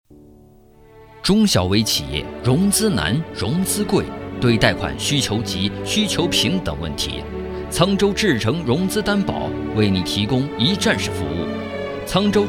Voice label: 企业专题大气浑厚男

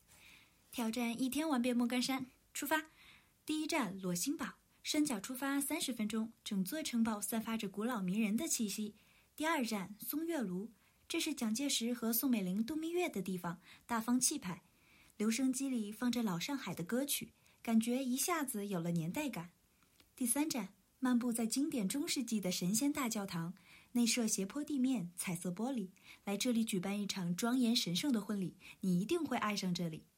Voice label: 旅游类短视频配音; 练习样例

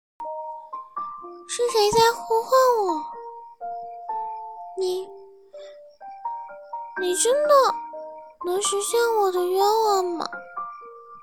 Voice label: 萝莉音

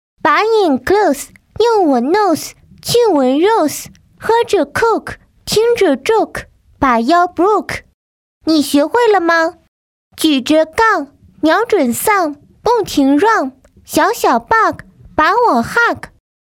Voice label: 童声 英文

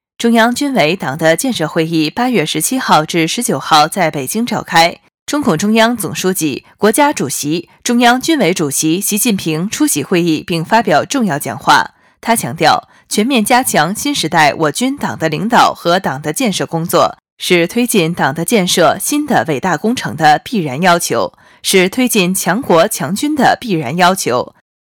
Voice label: 正播新闻; 中共中央

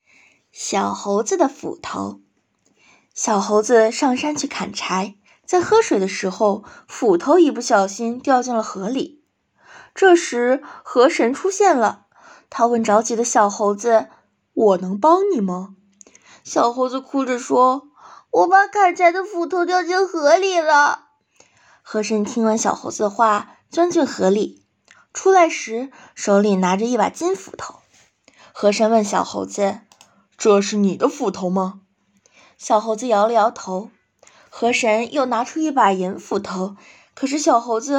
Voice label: 幼儿有声读物试音

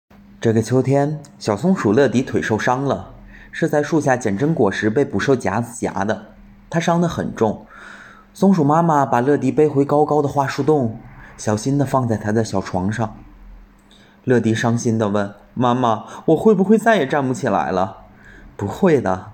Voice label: 童话试音

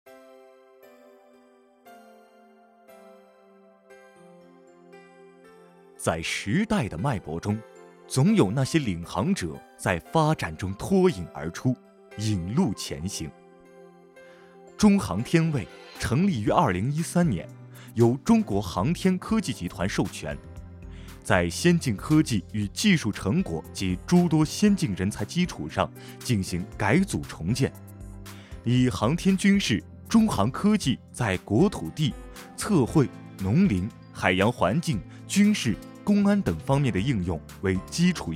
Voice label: 企业宣传 男

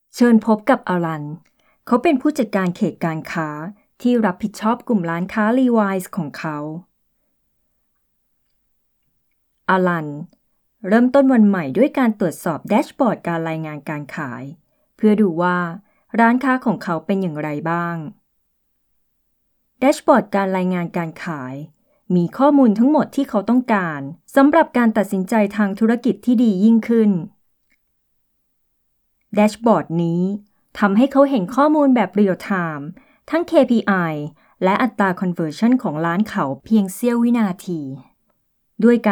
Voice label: 泰语女干音

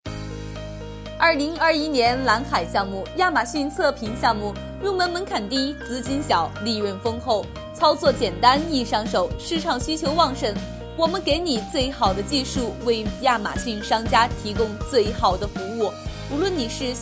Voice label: 跨境电商信息流广告

